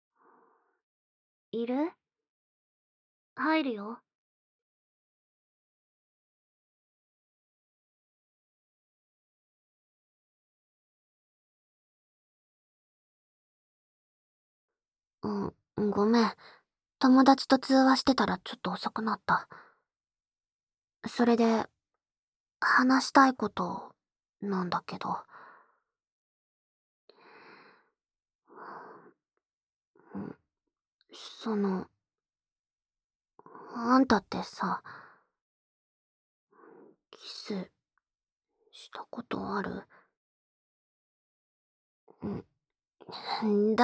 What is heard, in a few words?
ASMR; 素っ気ない義妹が、キスしたことあるかと聞いてきた; 効果音カット